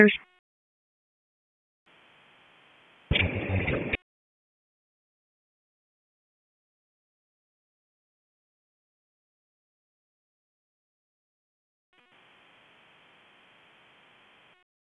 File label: PS TAC, p25; wake